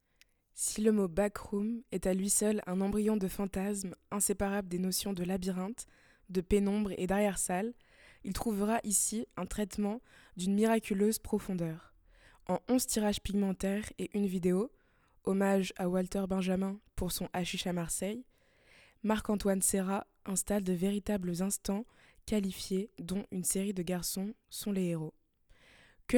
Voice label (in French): français; Véra Sassoulitch